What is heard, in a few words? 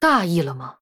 Female Human Oops, zh